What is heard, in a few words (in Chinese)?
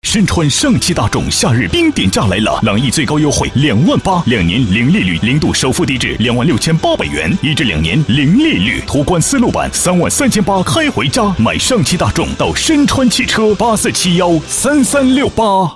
男 广告; 上汽大众